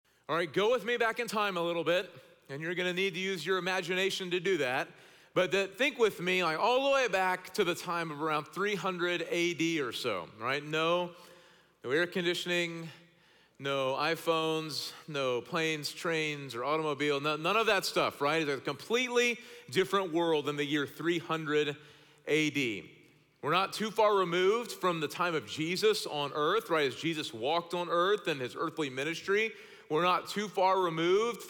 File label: Sermon English